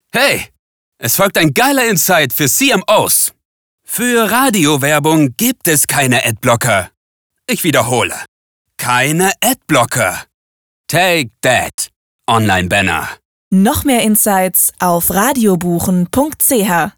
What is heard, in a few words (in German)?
SPOT Adblocker; SPOT Gattungsmarketing